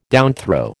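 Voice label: "downthrow", American English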